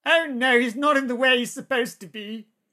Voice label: battle chatter